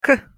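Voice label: "к", Russian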